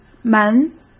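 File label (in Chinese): "门", Mandarin Chinese; hsk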